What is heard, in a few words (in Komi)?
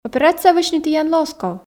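операция вӧчны тіянлы оз ков, Komi, female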